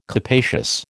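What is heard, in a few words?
"capacious", American English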